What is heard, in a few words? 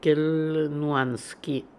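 "Kelnuanian", pronunciations; Fourth Way; Beelzebub pronounciations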